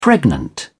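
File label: "pregnant", English, British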